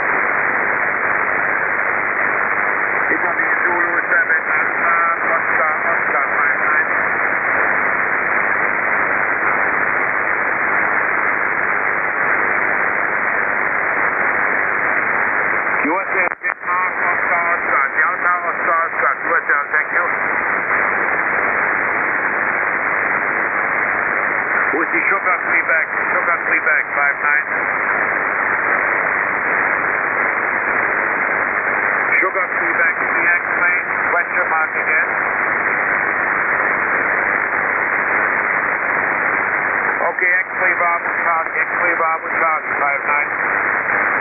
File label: 15m SSB